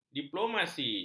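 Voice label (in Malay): "diplomasi", Malay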